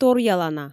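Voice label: "торъялана", Komi, female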